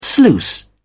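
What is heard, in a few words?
"sluice", English, British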